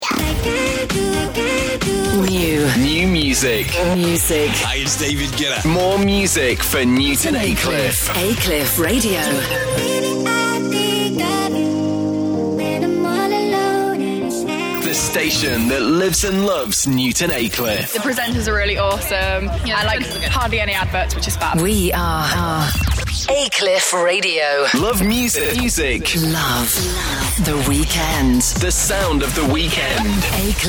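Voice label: Voiceover Imaging, Male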